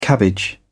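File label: "cabbage", British English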